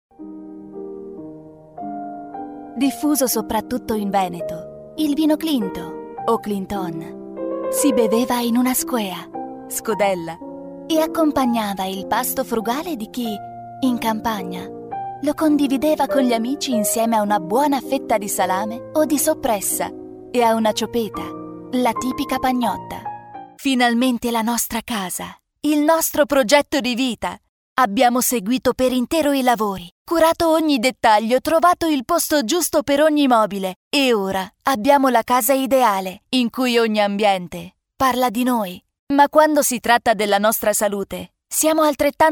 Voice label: Redazionale

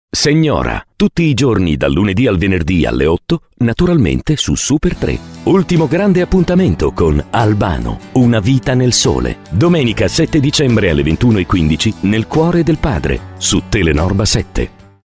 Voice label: Promo Televisivi

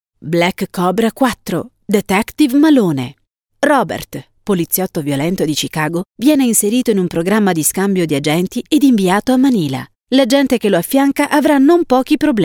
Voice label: Promo Televisivi

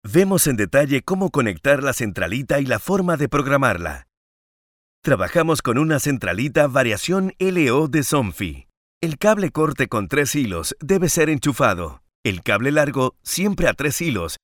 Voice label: Spagnolo

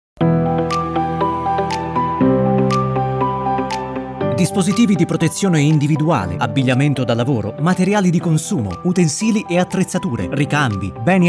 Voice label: Voce Redazionele; Decibel

